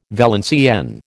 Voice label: "Valenciennes", American